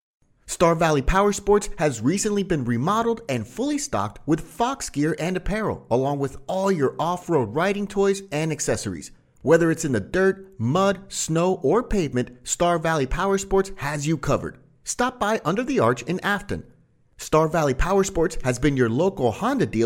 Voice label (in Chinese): 美式英语, 外籍男英; 外语